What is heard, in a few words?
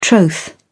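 "troth", British English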